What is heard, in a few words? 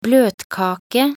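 "bløtkake", Norwegian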